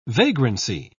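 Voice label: "vagrancy", British English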